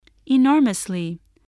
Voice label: "enormously", spelling